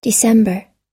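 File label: "december", US English; December – Dezembro; Datas em Inglês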